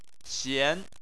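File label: "xian", Chinese, second tone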